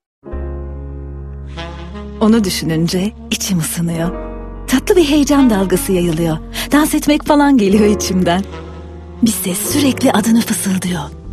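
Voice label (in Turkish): sicak sakin, seslendirme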